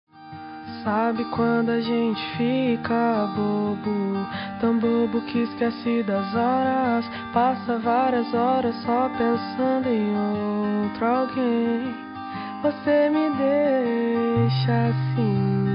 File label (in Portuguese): esposa, dia das mulheres para esposa voz masculina; linda